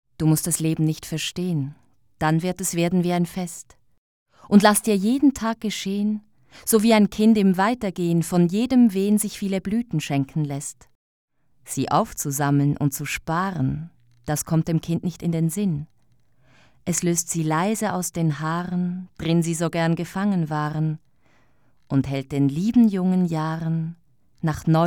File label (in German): Gedicht Deutsch